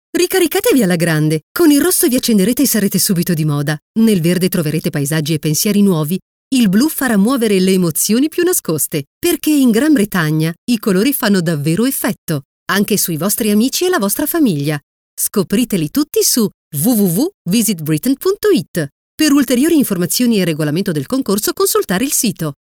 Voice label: Italian, vo